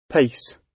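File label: "pace", British English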